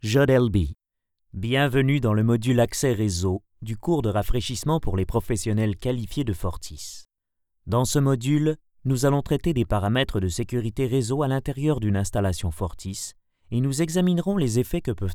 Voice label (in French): Apprentissage web